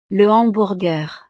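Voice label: "le hamburger", French; snacks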